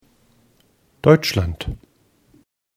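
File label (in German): "deutschland", German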